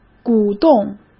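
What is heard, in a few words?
"鼓动", Mandarin Chinese; chinese-flashcards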